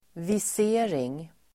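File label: "visering", Swedish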